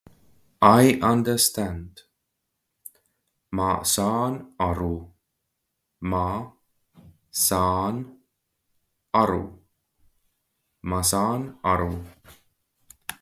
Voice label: I understand, Estonian phrasebook; the talking estonian phrasebook

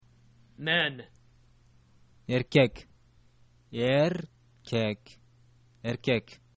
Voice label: men, kyrgyz

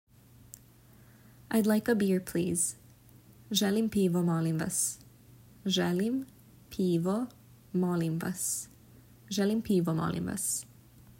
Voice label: Id like a beer please, serbian

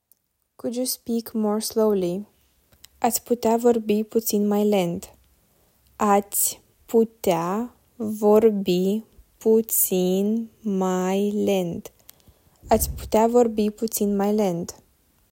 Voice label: Romanian Could you speak more slowly

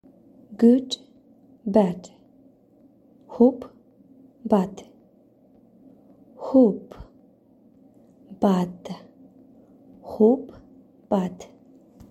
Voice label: Good Bad, Tajik

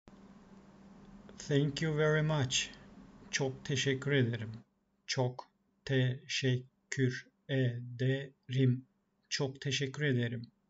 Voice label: thank you very much, Turkish phrasebook; the talking turkish phrasebook

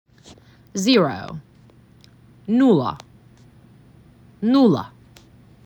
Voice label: MAC 6, macedonian phrasebook

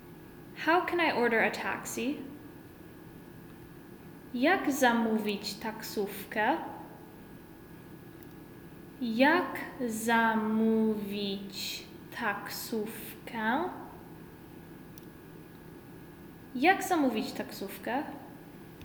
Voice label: Pol How can I order a taxi

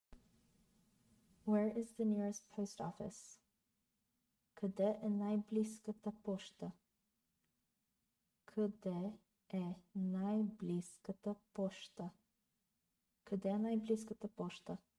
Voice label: BGN Where is the nearest post office, Bulgarian; the talking bulgarian phrasebook

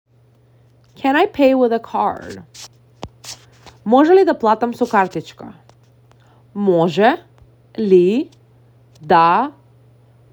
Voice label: Can I pay with a card, Macedonian; the talking macedonian phrasebook

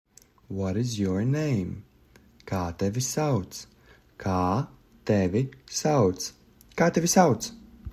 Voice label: What is your name, Latvian; the talking latvian phrasebook

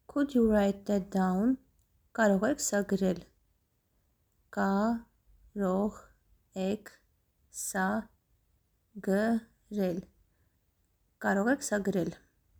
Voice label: Could you write that down, armenian; Karogh ek sa grel

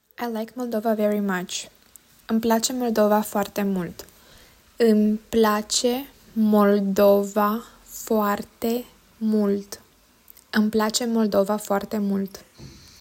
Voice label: Romanian I like Moldova very much

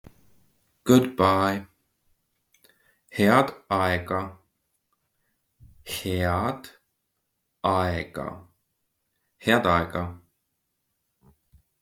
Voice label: Good bye, estonian; the talking estonian phrasebook

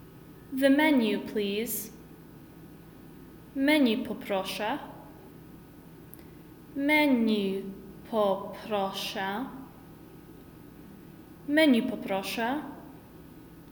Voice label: Pol The menu please

